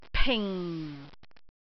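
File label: "ping4", Cantonese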